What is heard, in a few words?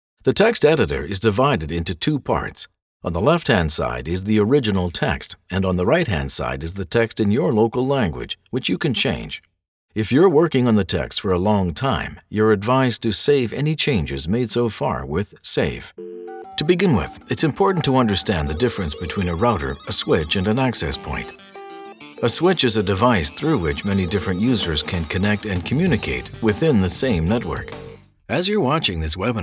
E-learning